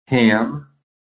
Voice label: "ham", American